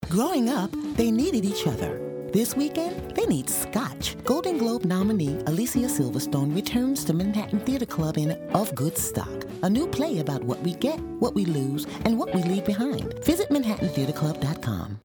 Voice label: Voice Over; Of Good Stock